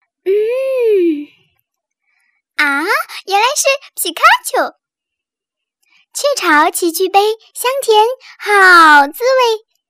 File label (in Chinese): 女 广告童声, 国语女声配音